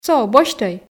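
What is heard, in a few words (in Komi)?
со босьтӧй, Komi, female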